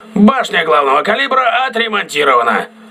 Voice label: voice message main caliber turret repaired, crew ships russian